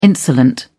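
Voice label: "insolent", British English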